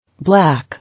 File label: "Black", English